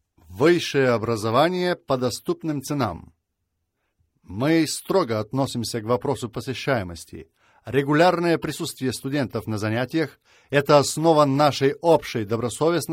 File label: Russian